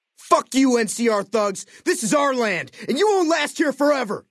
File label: male adult take water; FNV